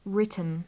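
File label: "written", British English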